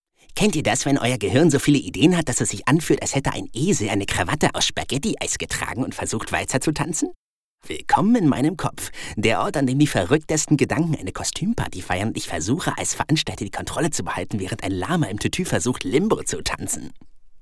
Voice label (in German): Trickstimme